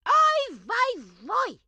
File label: grandma chopper missed; Blind Drive